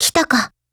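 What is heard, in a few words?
HELLO, Japanese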